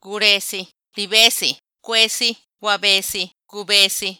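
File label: cry paradigm, Macuiltianguis Zapotec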